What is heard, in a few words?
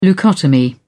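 "leucotomy", British English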